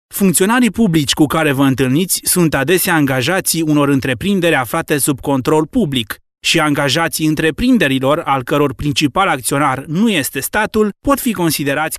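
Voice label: Romanian, male, explainer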